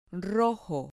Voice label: "rojo", Spanish